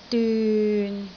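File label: "dyun6", Cantonese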